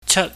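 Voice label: "chat", Cantonese, high tone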